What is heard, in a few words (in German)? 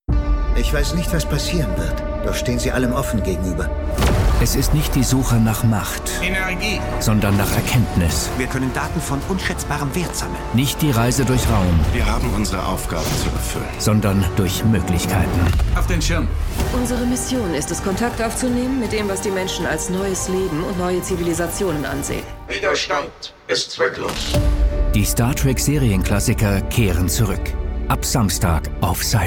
Trailer, maenner; SYFY; Star Trek